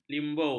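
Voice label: "limbo", Malay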